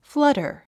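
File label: "flutter", 英単語 発音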